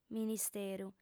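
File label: "ministeru", female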